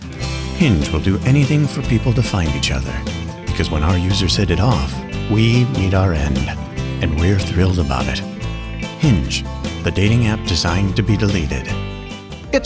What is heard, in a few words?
Commercial